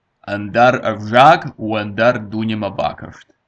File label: ossetian